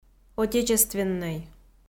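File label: "отечественнӧй", Komi-Zyrian, female; audio dict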